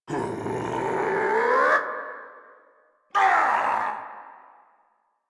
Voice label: angry, en; Warframe; Tyl Regor Boss; Efforts